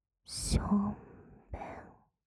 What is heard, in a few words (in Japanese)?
【左】ションベン, ASMR; 淫語